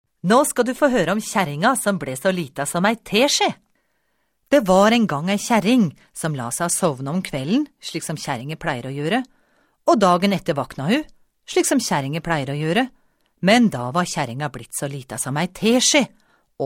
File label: NOR Audiobook F